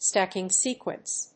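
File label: "stacking sequence", English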